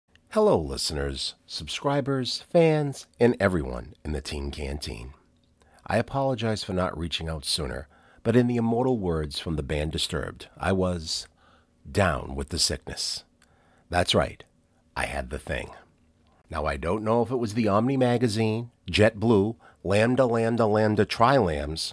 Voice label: Announcement